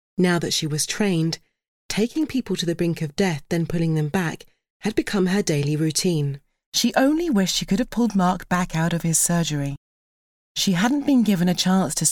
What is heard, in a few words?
audio book